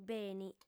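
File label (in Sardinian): "beni", female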